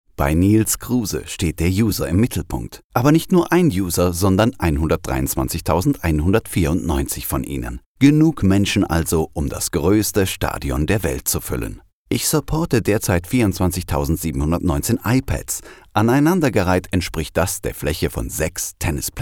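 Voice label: german native voice talent sample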